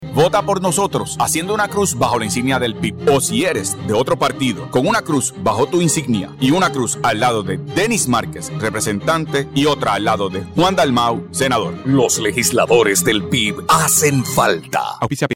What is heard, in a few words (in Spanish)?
Anuncio Radio